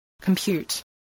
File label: "compute", english